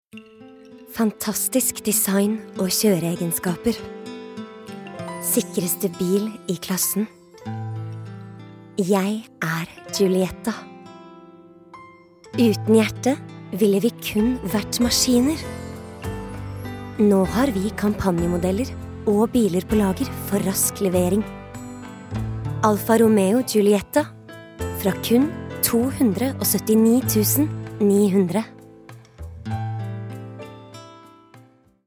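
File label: Norwegian soft sell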